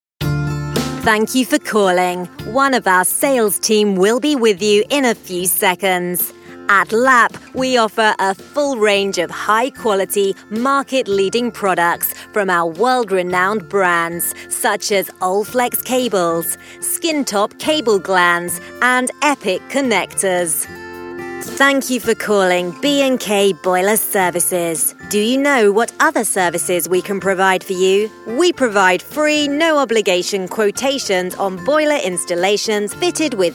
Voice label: On Hold; Voice-over demos; on hold voices